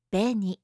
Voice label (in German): "beni", japanische maedchennamen